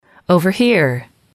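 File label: "over here", English, female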